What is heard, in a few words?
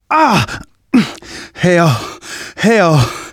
going to die light, manager, survivor, voice; Left 4 Dead 2; left4dead2 dlc2